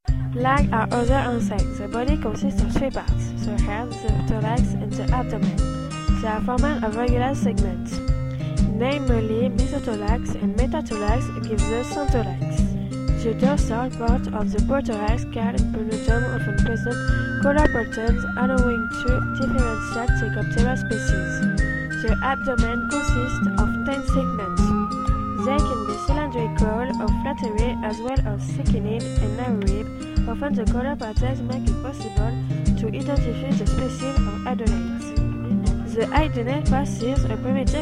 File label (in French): anglais musique